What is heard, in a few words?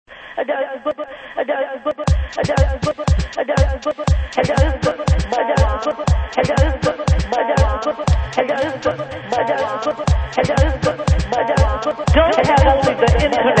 voicemail